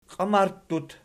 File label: "qiamarttut", Archi